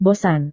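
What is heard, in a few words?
"bosan", Indonesian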